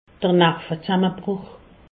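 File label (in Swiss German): "nervezsammebruch", Alsatian; medecine; dépression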